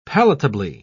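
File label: "palatably", British English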